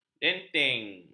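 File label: "dentin", Malay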